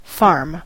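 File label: "farm", English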